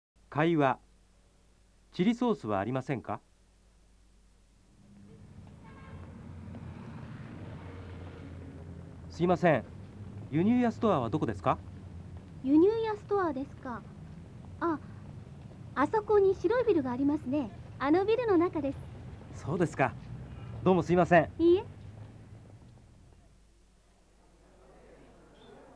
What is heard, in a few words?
nihongo dialog, jap